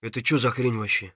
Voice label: scav mutter, voice; Escape from Tarkov; scav3